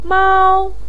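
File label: "mao", Mandarin pinyin, first tone; 撸; lū māo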